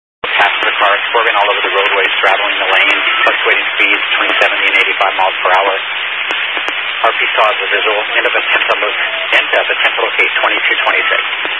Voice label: Highway Patrol, scanner; DPS; Dist 06 Casa Grande